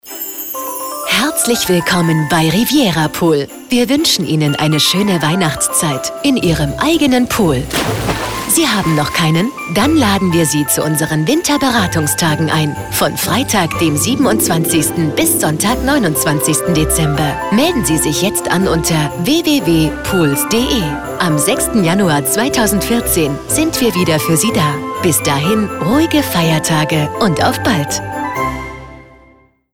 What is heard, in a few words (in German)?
Weihnachtsansage; Riviera Pool